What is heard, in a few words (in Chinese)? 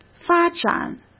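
"发展", Mandarin Chinese; chinese flashcards; hsk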